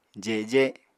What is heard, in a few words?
"jeje", apatani